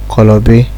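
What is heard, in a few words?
"kolobi", Nigerian Pidgin, male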